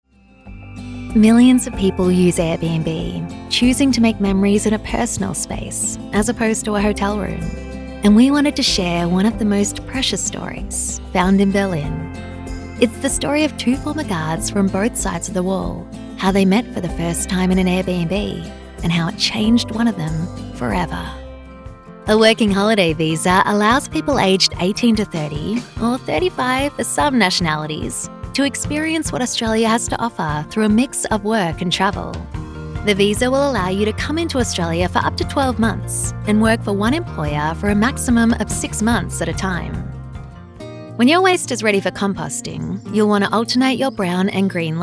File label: Corporate Demo Reel, australian accent